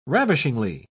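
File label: "ravishingly", British English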